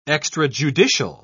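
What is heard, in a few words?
"extrajudicial", British English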